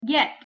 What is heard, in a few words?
"gjet", Armenian